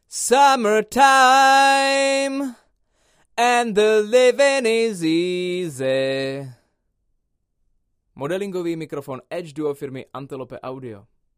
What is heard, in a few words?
Vocal - C414 BiDirect - Edge modelled; bidirectional (osmička); edge duo srovnavaci test